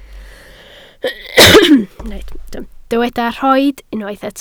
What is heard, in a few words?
"rhoid", Welsh